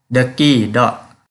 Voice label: Kelantan-Pattani Malay